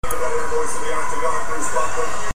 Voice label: robot